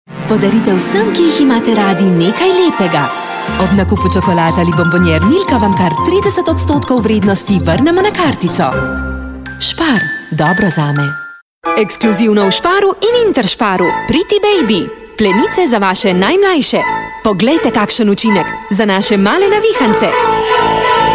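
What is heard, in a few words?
slovenian female commercial; voice demos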